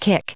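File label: "kick", English, us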